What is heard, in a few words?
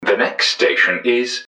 next, english man, subway announcers; MetrostroiAddon